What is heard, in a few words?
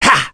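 Vox Attack, voices heroes English; kingsraid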